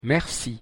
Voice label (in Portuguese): pronuncia frances obrigado